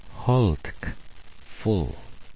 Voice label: "hooltk", smalgyax